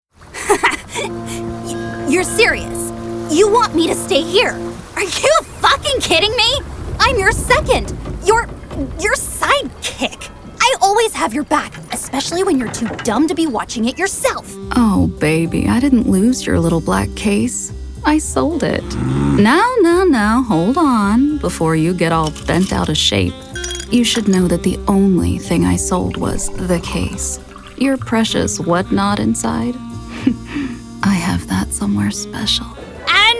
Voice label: vo interactive